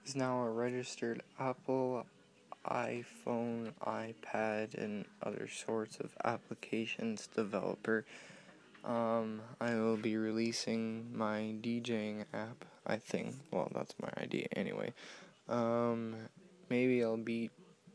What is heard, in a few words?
announcement; of upcoming iphone app